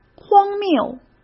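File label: "荒谬", Mandarin Chinese; chinese flashcards; hsk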